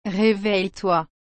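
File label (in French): "Réveille toi", French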